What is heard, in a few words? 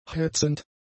"hetzend", German; adjectives